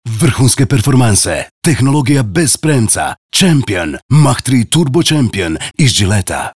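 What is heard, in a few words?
croatian male commercial; voice demos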